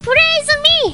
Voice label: cheer

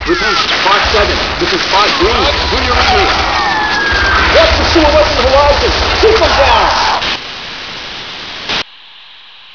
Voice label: radio mike

